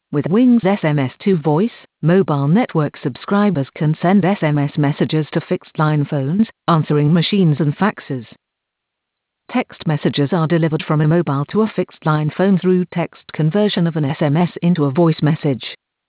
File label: SMS 2 Voice EN